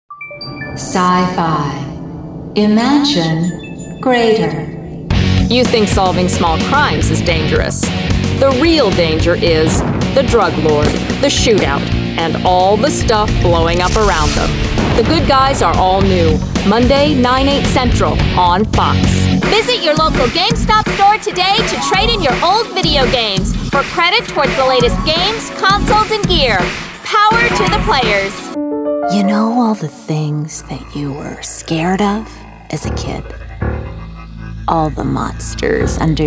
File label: Promo